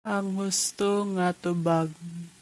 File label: Cebuano